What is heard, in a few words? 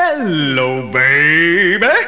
funny hi babe